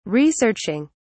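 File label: "researching", British English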